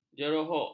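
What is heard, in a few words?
"jerohok", Malay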